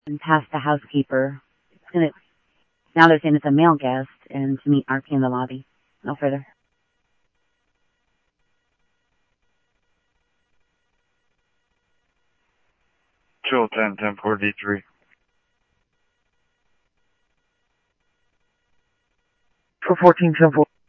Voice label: Police, scanner audio